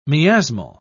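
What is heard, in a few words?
"miasmal", British English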